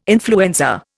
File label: "influenza", Indian